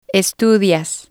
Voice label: "estudias", spanish